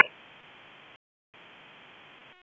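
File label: Police District Dispatch, p25; Raleigh; North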